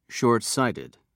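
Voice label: "shortsighted", British English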